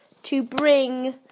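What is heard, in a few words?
"to bring", British English; PictureDictionary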